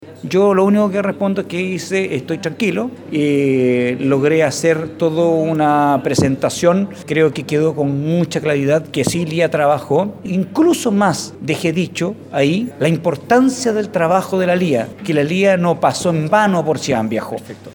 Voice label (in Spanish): CUÑA; CHVIEJO AYLWIN